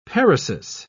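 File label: "paresis", British English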